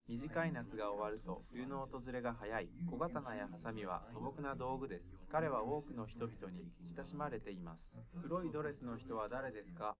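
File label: male, live recording; SiSEC11; underdetermined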